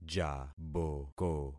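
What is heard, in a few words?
"jaboko", concatenated syllables; Affixing experiment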